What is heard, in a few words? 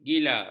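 "gila", Malay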